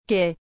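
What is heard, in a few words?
"quai", French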